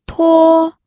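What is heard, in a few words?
"tuo1", Mandarin Chinese, pinyin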